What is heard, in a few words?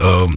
vox fx; E-Lab; X Static Goldmine 2; akai